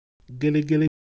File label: Ewe Motion, ideophones